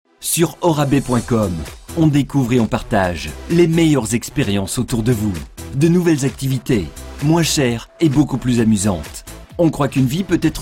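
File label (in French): French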